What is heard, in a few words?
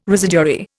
"residuary", Indian